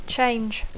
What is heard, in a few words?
"change", English